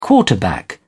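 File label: "quarterback", British English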